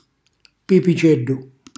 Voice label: "pipicellu", Calabrese; cucina calabrese; Glossario